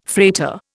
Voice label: "freighter", Indian